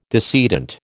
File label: "decedent", American